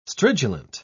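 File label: "stridulant", English, British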